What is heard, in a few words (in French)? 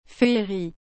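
"féerie", French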